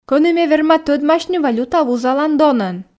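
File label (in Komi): кӧні ме верма тӧдмасьны валюта вузалан донӧн, Komi, female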